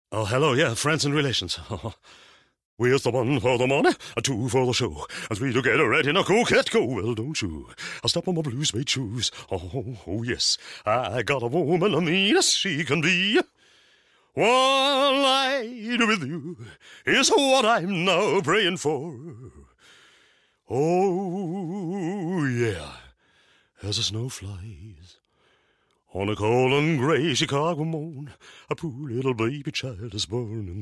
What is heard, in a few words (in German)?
immitation elvis